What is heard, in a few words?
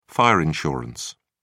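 "fire insurance", British English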